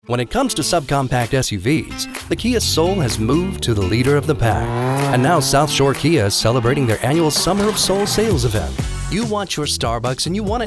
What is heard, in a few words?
Commercial